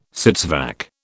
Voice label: "sits vac", British

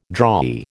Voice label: "drawee", American

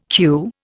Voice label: "queue", Indian

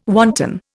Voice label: "wanton", Indian

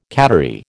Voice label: "cattery", American

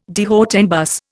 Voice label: "de haut en bas", Indian

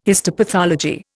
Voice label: "histopathology", Indian

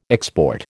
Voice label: "export", American

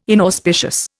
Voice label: "inauspicious", Indian

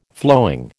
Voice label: "flowing", American

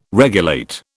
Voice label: "regulate", British

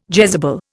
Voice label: "Jezebel", Indian

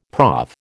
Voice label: "prof", American

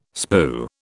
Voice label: "spue", British

